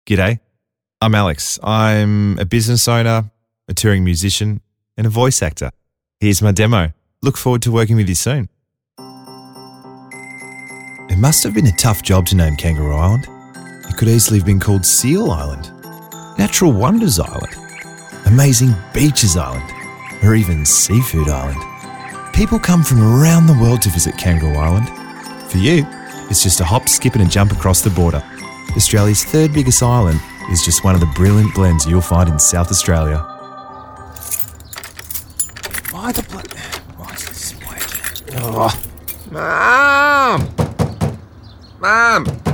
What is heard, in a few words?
english australian commercial